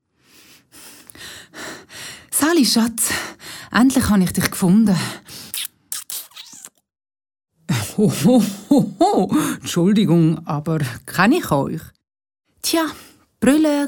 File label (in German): Spot, dialekt; Seeland 2008